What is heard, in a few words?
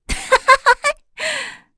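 Vox Happy, voices heroes English; kingsraid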